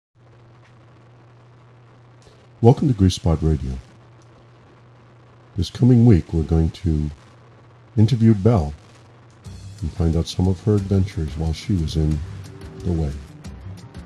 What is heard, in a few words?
voiceover